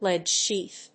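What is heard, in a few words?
"lead sheath", English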